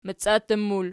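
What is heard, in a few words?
"maciattu" plural, Archi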